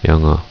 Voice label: "english", korean